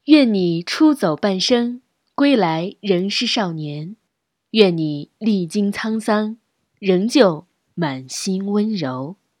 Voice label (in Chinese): 感情节目沉稳台声; 出走半生感情节目沉稳台声